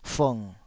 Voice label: "fung3", Taiwanese syllable